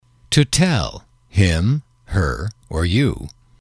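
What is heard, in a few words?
"to tell him her you", English, male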